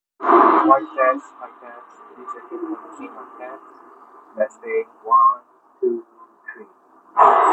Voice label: Noisy